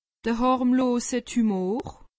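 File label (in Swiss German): "harmlose tumor", Alsatian; medecine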